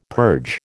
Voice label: "purge", American